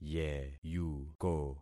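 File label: "yeyuko", concatenated syllables; Affixing experiment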